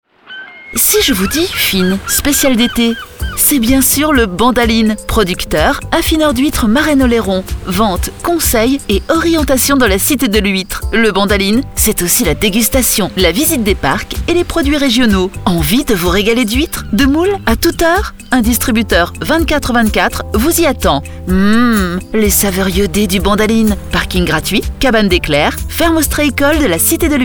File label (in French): Spot